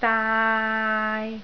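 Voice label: "daai3", Cantonese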